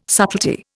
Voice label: "subtlety", Indian

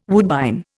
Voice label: "woodbine", Indian

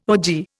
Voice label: "ogee", Indian

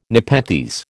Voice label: "nepenthes", American

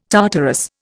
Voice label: "Tartarus", Indian

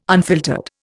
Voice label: "unfiltered", Indian

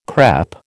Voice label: "crÈpe", American

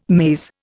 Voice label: "maze", Indian

